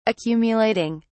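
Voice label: "accumulating", British English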